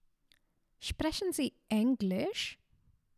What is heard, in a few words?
"Sprechen Sie Englisch", German; basic german; essential phrases